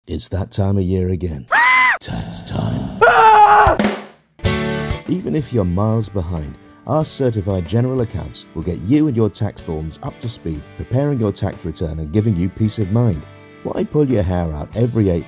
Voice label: Commercial

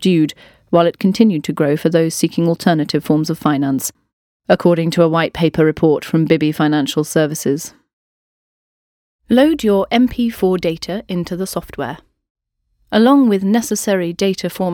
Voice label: Corporate voice reel

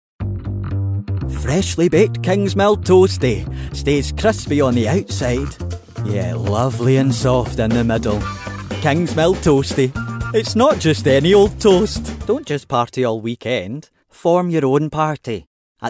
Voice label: Commercial

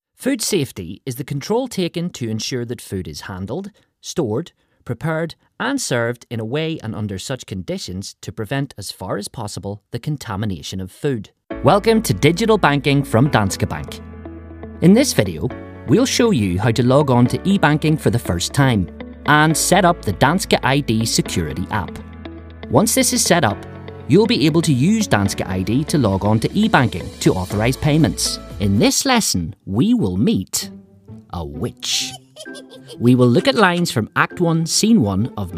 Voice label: E Learning

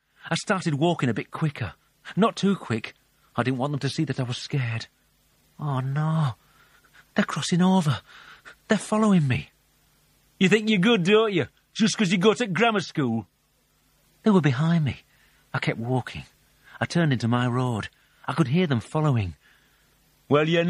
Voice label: Audiobook

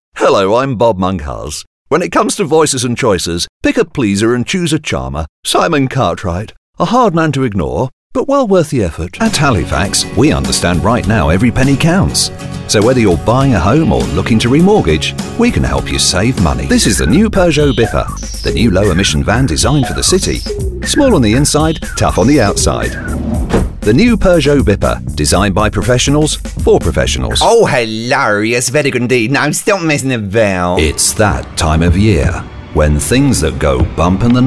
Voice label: Commercial

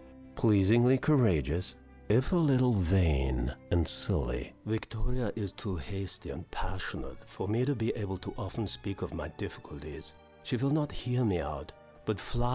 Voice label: Character

